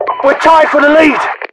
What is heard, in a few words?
tied, UK; english; voiceovers; mpvoice